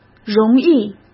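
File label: "容易", Mandarin Chinese; hsk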